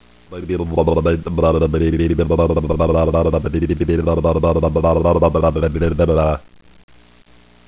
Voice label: mumbling